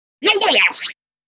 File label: SE Voice Boy Booyah; Splatoon